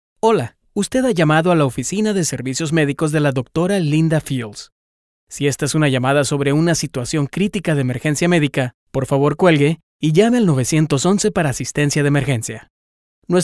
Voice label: phone